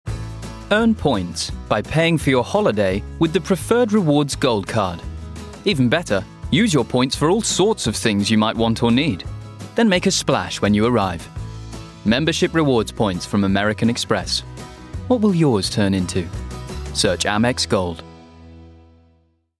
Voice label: Voice Reel Commercial; American Express